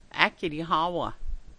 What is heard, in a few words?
What else, language class dictionary